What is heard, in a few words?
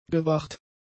"gewacht", German; adjectives